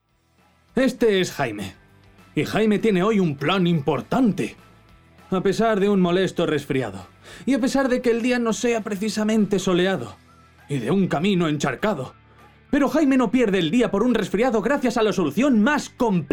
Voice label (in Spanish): anuncio; Frenadol